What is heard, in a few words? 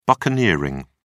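"buccaneering", English, British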